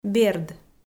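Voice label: "берд", Komi, female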